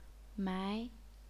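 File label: "mai", second tone, chinese pinyin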